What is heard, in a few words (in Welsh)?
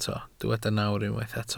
"nawr", Welsh